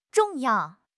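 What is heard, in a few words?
"zhong4 yao4", Chinese; HSK; HSK3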